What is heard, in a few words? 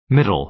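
"middle", American English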